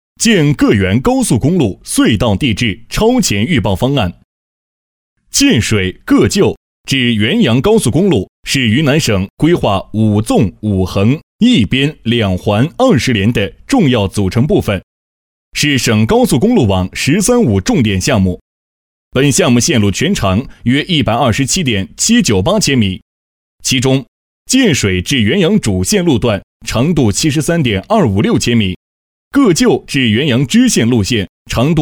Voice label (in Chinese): 男 高速公路（正式）